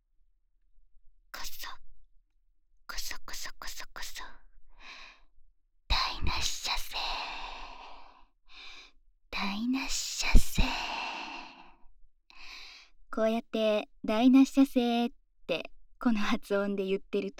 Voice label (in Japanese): フリートーク; ASMR